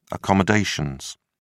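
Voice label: "accommodations", British English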